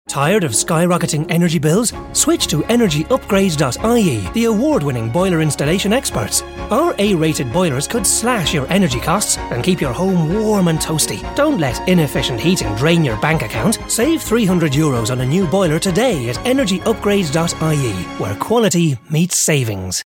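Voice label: irish commercial, Northern Tenor Voice Over With Husky Edge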